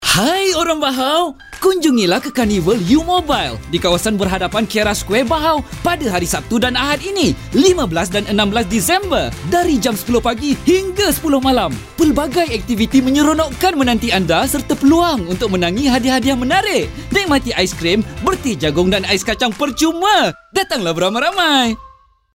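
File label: Malay; u mobile; bahau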